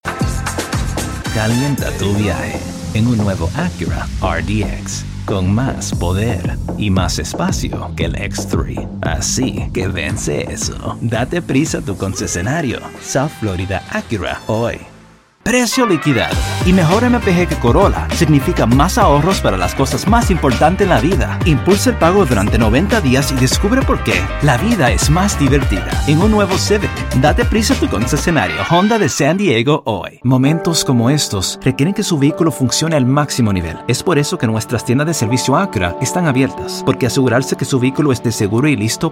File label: Spanish